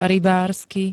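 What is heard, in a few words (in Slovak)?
"rybársky", Slovak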